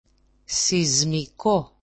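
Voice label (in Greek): "sizmiko", Greek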